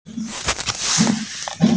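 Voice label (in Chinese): "zi3dan4", Mandarin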